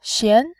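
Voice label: "xian", Mandarin Chinese, tone 2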